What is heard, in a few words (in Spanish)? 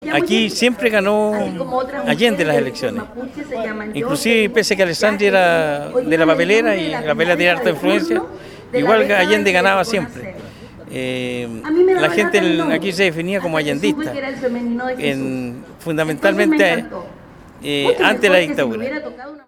cuña; libro puente alto; organizaciones de puente alto celebran el dia del libro